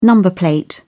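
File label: "number plate", British English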